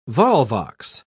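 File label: "volvox", British English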